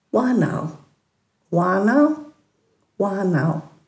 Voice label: "waanhal", Dhurga; dulagaal